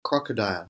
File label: "crocodile", English spelling